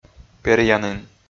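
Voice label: "перъянін", Komi, male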